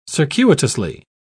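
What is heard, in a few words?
"circuitously", British English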